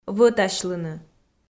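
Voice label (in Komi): "вӧтасьлыны", Komi, female; audio dict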